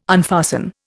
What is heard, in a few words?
"unfasten", Indian